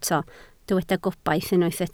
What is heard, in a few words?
"gobaith", Welsh